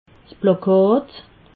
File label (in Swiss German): "plakat", alsace